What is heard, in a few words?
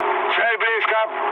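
near waypoint, mood med, Russian; War Thunder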